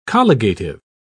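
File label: "colligative", British English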